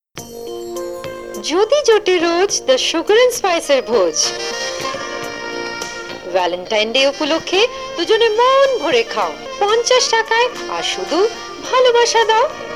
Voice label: promo bengali